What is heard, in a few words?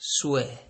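"swear", Nigerian Pidgin, male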